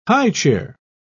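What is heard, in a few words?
"highchair", English, British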